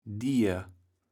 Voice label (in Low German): "Dier", kaevels platt